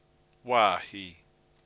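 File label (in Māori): "waahi", Māori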